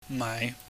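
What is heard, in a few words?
"maai", Cantonese, low rising tone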